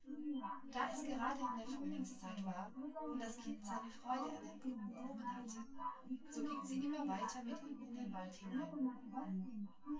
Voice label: female synthconv